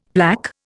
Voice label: "plaque", Indian; Dictionary